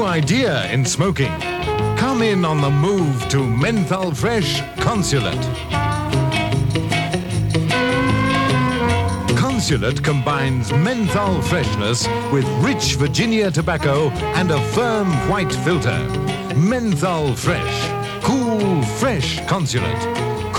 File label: commercial cigarettes; r london final; Consulate